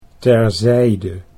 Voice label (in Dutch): "terzijde", Dutch